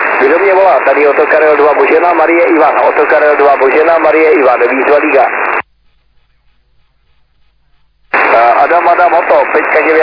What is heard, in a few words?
SSB LSB 3.5MHz; SSB-LIGA